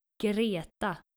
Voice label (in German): skandinavische maedchennamen greta, Aussprache